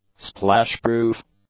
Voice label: "splash-proof", english; adjectives

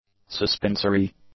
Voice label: "suspensory", English; adjectives

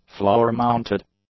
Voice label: "floor-mounted", english; adjectives

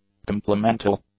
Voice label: "complemental", English; adjectives

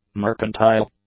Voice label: "mercantile", english; adjectives

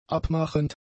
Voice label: "abmachend", German; adjectives

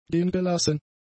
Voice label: "gehengelassen", German; adjectives

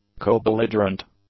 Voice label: "co-belligerent", English; adjectives

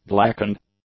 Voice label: "blackened", English; adjectives

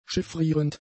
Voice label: "chiffrierend", German; adjectives

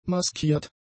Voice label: "maskiert", German; adjectives

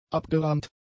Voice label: "abgerahmt", German; adjectives

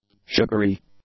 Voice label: "sugary", English; adjectives

